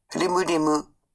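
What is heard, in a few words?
"rimurimu", Maori; seaweed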